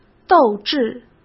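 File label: "斗志", Mandarin Chinese; hsk; chinese flashcards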